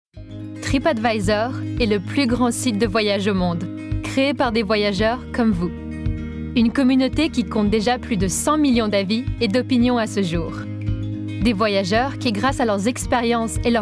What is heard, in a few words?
French; tripadvisor